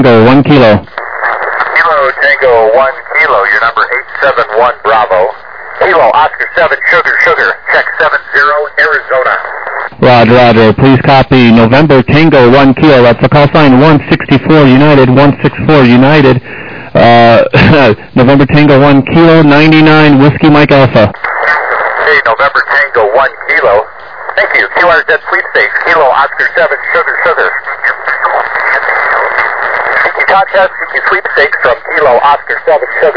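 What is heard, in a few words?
upper sideband 21MHz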